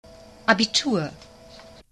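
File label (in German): "Abitur", German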